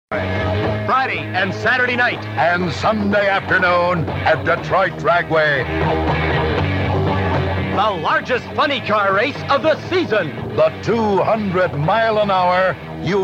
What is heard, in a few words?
Commercial; Detroit Dragway